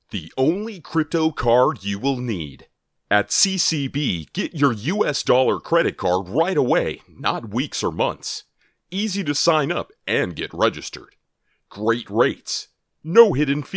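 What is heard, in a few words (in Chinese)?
外籍男声 美式英语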